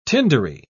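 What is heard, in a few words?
"tindery", British English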